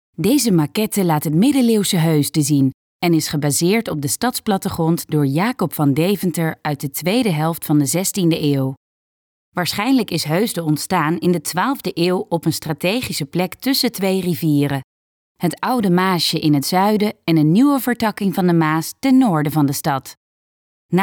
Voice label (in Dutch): audiotour; Het Gouverneurshuis